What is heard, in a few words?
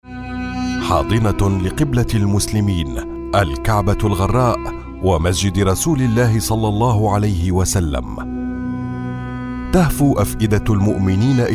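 arabic male commercial; voice demos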